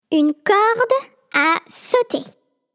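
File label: JUMPROPE, French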